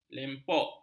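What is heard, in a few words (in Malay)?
"lempuk", Malay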